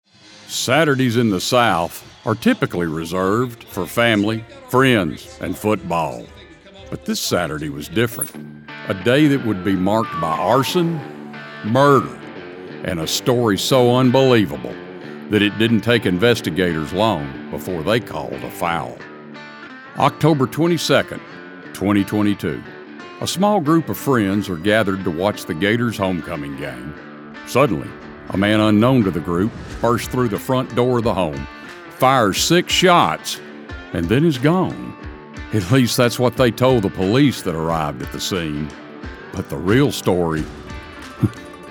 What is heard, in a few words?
TV Promo